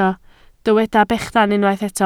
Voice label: "bechan", Welsh